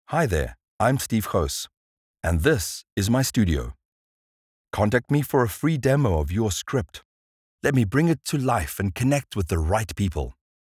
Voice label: Studio Recording